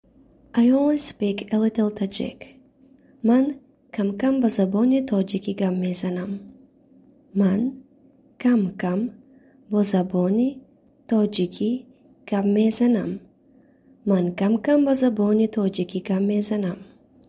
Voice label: I speak a little tajik Tajik